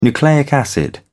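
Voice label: "nucleic acid", British English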